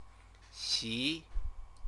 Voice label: "xi", Chinese

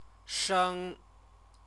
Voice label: "sheng", Chinese

